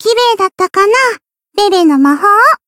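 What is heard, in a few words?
win, Japanese; lele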